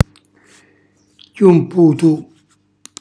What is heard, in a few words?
"chiumputu", Calabrese; compiuto, definito